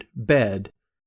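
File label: "bed", American English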